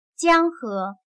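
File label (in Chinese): "江河", Chinese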